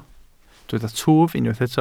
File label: "twf", Welsh